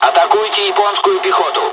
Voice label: take off, dialogs russian; War Thunder